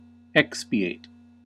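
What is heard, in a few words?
"expiate", American English; pronunciations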